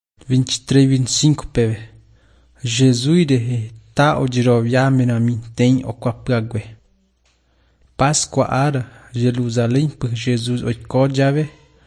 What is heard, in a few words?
john guarani mbya, bible